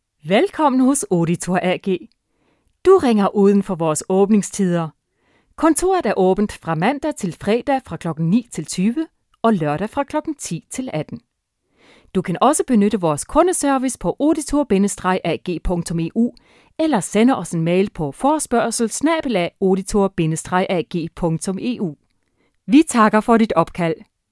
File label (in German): Testspeak Daenisch; LOFT VOICES